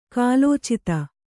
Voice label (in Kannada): "ಕಾಲೋಚಿತ", Kannada